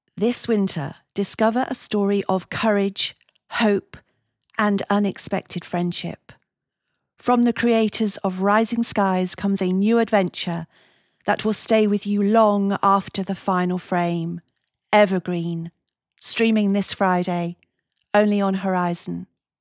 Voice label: PROMO TV Streaming Trailer, voiceovers